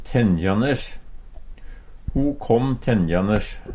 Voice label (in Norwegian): tenjanes, Numedalsmål; springande; Uttrykk; Ho kåm tenjanes.